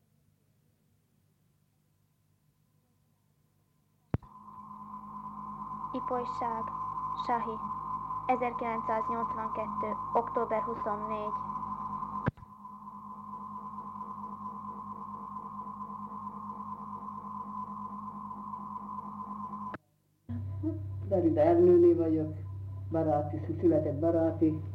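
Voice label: dialect collections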